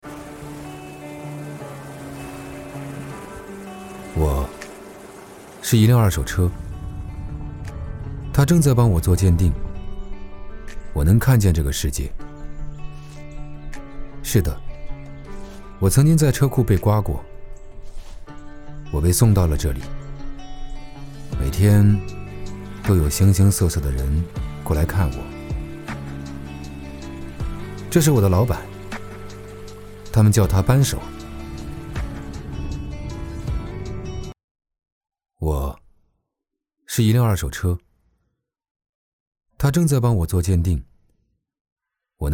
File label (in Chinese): 男 走心自然 视角独白